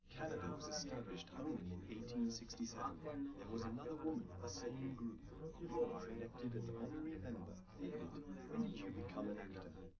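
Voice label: male3 live recording 130ms 5cm, underdetermined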